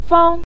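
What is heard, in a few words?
"fang" Mandarin Chinese, neutral tone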